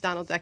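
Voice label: "donL duck", American English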